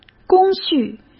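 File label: "工序", Mandarin Chinese; hsk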